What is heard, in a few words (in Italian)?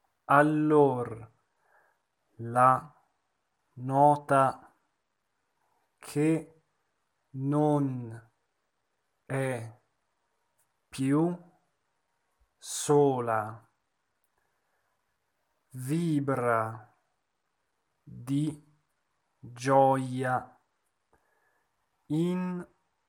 monotono; Falstaff; Dal labbro il canto estasiato vola